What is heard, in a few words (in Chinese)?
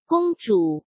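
"公主", Mandarin Chinese; hsk; chinese-flashcards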